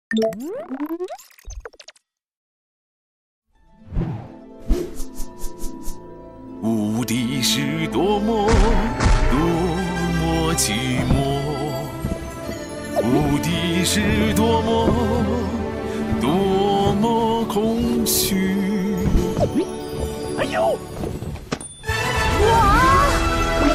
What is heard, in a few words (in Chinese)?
男, 病毒洗脑类; 泰康人寿泰行销